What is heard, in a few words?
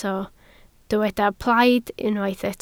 "plaid", Welsh